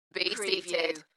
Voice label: UK female Be seated Dalekness; beanstalk audio